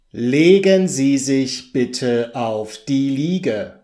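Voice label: legen auf liege, German; beim arzt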